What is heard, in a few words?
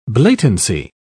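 "blatancy", British English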